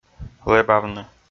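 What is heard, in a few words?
"лэбавны" Komi, male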